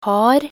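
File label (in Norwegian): "har", Norwegian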